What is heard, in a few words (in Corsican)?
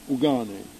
"ucane", lingua corsa